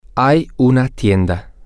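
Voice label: there is a store, Spanish, male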